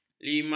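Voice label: "lima", Malay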